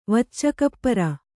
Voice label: "ವಚ್ಚಕಪ್ಪರ", Kannada

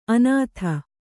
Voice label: "ಅನಾಥ", Kannada; anātha; helpless; forlorn; lacking a supporter; destitute.; parentless; orphan.

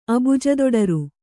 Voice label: "ಅಬುಜದೊಡರು", Kannada

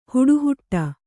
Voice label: "ಹಟಹಿಡಿ", Kannada

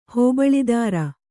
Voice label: "ಹೋಬಳಿದಾರ", Kannada

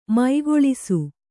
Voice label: "ಮುಕ್ಕುಳಿಸು", Kannada

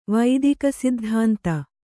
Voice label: "ವೈದಿಕಸಿದ್ಧಾಂತ", Kannada

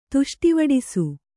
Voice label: "ತುಷ್ಟಿವಡಿಸು", Kannada

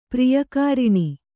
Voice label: "ಪ್ರಿಯಕಾರಿಣಿ", Kannada

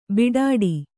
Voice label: "ಬಡ್ಡು", Kannada

